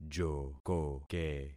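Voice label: "jokoke", concatenated syllables; Affixing experiment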